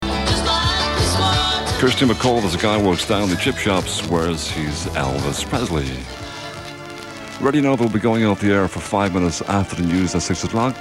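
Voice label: break announcements; nova 88fm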